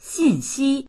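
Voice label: "xin4xi1", Mandarin Chinese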